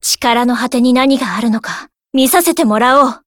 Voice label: rage; ff2